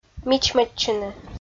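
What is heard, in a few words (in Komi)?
"мичмӧдчыны", Komi, female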